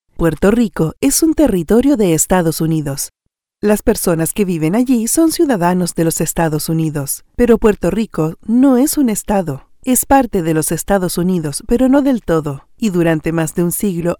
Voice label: AUDIOTOUR, Latin Spanish Neutral Female, Tour Guide, Museum Audiotours; SMITHSONIAN